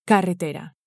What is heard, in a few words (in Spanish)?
"carretera", Spanish